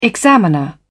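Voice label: "examiner", British English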